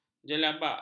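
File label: "jelabak", Malay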